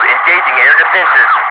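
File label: "engaging air defences", English, Wingman; DCS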